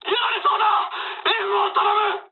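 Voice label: aircraft damaged mood high, speech japanese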